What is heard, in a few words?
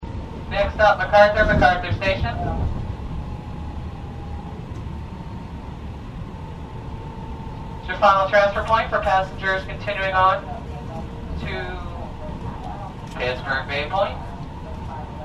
Train Conductor, Bay Area train conductor announcement.; Subways; Vehicles; Yvette Jackson